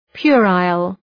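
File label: "puerile", English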